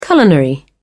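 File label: "culinary", British English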